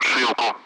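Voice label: Speech Sound Russian Common Allied Flight; AirDefence; Zeus (ZU-23-4); DCS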